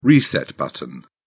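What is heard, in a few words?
"reset button", British English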